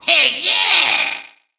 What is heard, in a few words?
Troll VO Success; Skylanders Cloud Patrol; Arcade, iOS, Mobile